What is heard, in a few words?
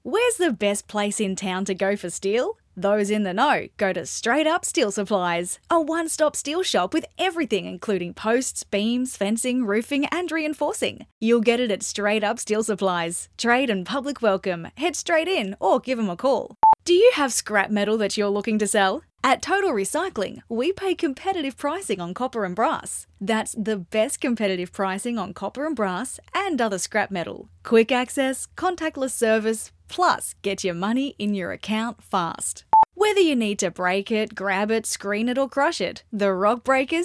Aussie